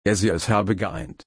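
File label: "einen", German, slow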